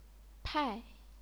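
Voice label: "pai", fourth tone, chinese pinyin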